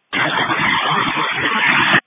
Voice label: Fire Tactical